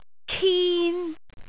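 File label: "kin3", Cantonese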